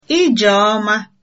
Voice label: bon voyage, igbo